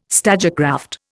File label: "stagecraft", Indian